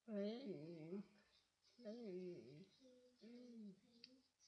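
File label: "ring", spoken word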